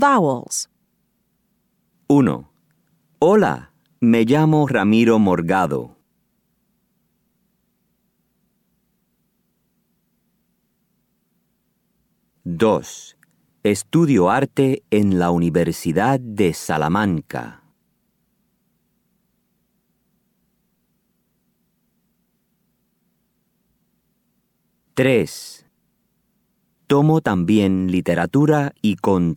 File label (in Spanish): pronunciacion oraciones; textbook; Chapter 2